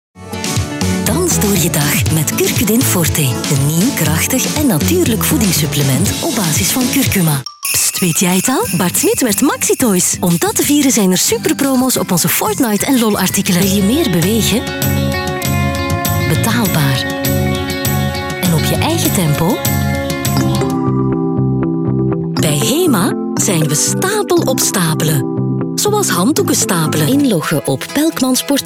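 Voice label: Flemish Female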